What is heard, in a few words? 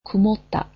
"cloudy", japanese